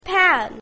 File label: "pan", English; grade1; short vowels